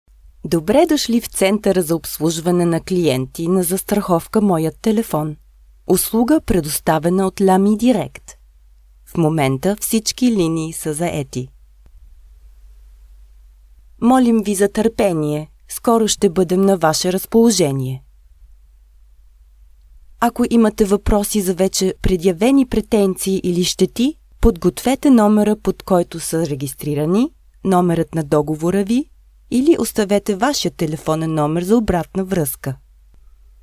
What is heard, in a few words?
bulgarian voiceover locutora bulgara; locutora de Bulgaria